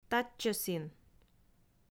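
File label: "татчӧсін", Komi, female; audio dict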